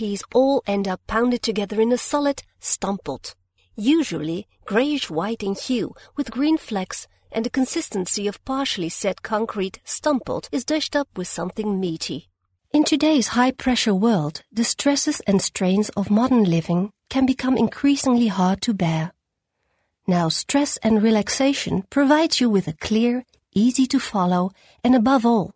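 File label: Dutch English F Narration, english in foreign accent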